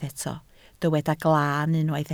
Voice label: "glaan", Welsh